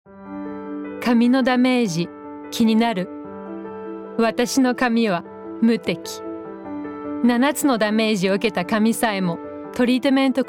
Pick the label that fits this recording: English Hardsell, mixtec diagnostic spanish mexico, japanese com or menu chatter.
japanese com